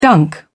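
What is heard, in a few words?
"dunk", British English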